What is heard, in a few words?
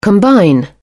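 "combine", British English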